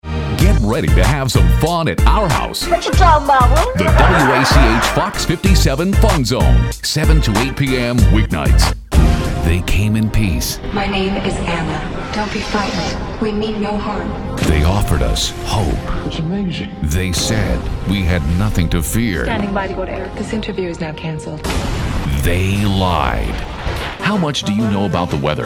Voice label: TV promo affiliate

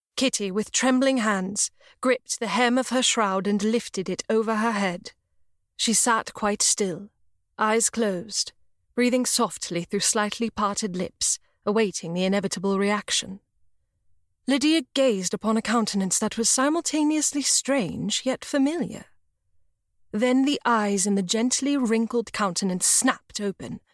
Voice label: Audiobook

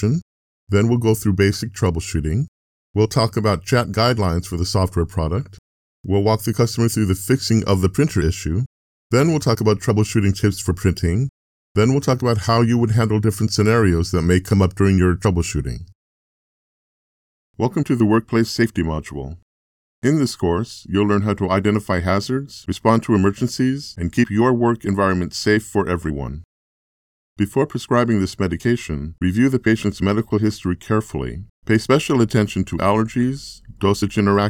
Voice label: corporate and e-learning narration

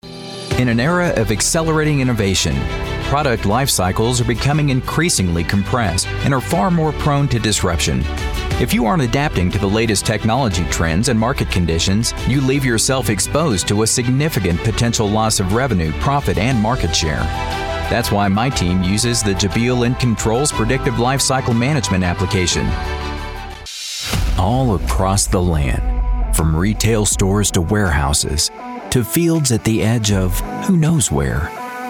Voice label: Explainer

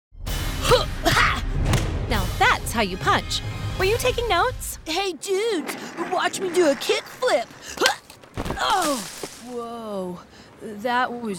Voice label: Animation

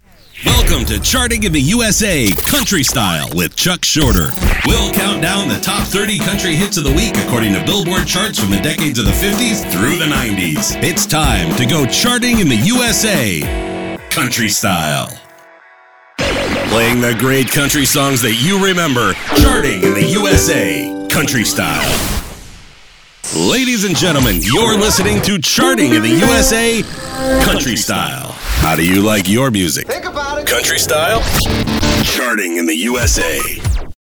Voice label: PROMO VO

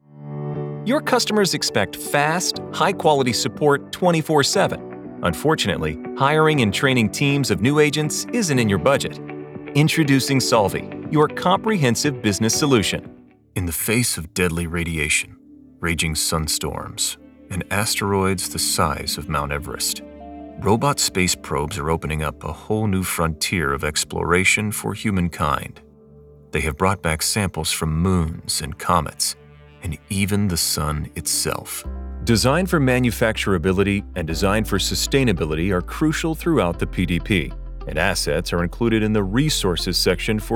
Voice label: Narration

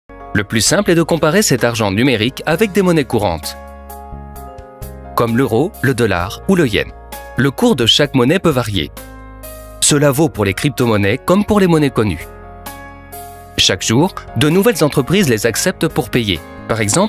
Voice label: Corporate; Verway Le Bitcoin (extrait d'une série de 5 vidéos Verway)

